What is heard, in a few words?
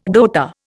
"rota", Indian